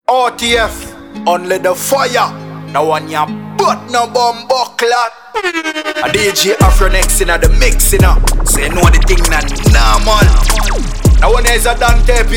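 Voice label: Jamaican male drops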